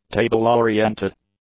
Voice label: "table-oriented", English; adjectives